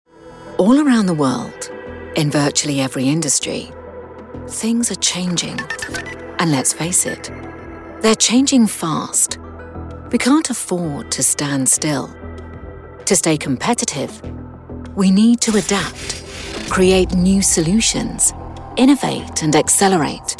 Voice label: corporate, native speaker (British; lektorzy